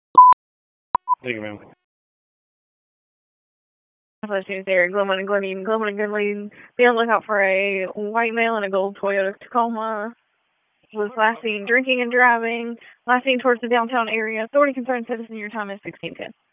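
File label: Police Southwest District Disp, radio; Raleigh; p25; wake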